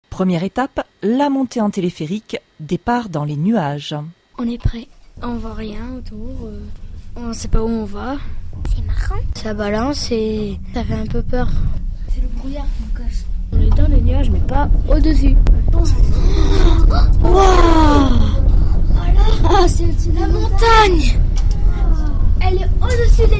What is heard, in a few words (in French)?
microtrottoir paroles d'enfants; pic du midi